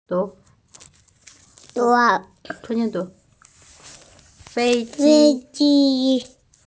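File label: "fei1ji1", Mandarin Chinese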